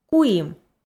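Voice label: "куим", Komi, female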